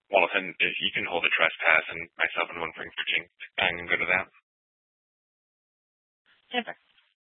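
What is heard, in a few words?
Police Department North Dispatch, scanner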